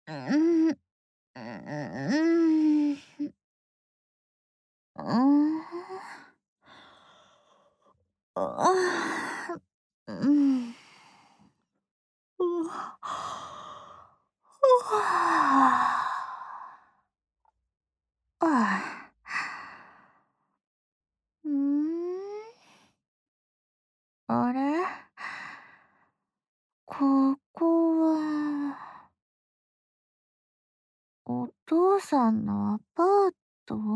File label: Whisper, ASMR